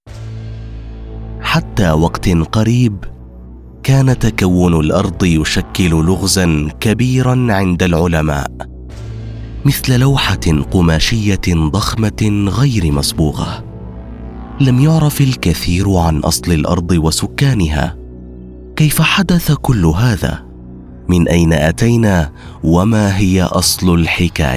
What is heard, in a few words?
Earth Creation Documentary Mysterious Standard Arabic